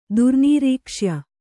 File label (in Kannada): "ದುರ್ನೀರೀಕ್ಷ್ಯ", Kannada